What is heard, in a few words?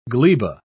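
"gleba", British English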